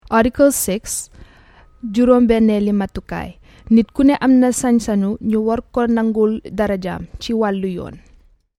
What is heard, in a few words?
Wolof